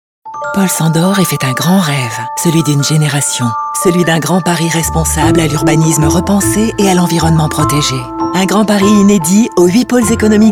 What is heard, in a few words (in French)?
chaleureuse enthousiaste; le Grand Paris